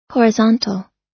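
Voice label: "horizontal", US English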